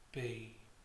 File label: "bee", vowels